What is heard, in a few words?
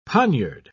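"poniard", British English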